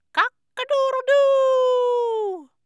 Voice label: english rooster